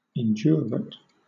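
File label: "indurate", British English; pronunciations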